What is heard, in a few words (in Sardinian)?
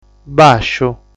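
"Bàsciu", Sardinian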